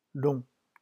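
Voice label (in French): "long", French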